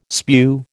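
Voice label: "spew", American English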